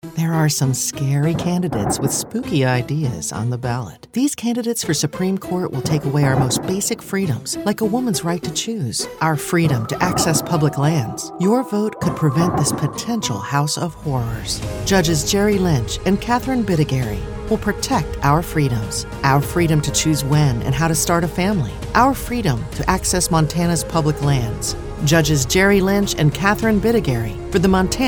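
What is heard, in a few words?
Democrat Voiceover, Female; Political DEMOCRAT Voices English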